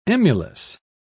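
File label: "emulous", British English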